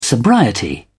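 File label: "sobriety", British English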